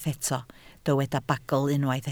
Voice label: "bagl" Welsh

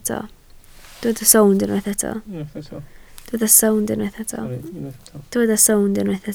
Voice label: "sownd" Welsh

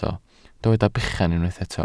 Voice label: "bechan", Welsh

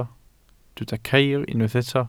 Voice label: "ceir", Welsh

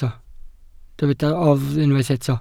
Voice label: "oddf" Welsh

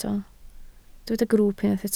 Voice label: "grwwp" Welsh

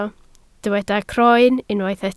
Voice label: "croen", Welsh

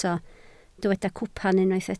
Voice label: "cwpan", Welsh

